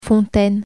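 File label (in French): "fontaine", French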